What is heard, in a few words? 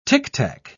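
"tictac", British English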